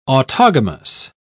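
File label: "autogamous", British English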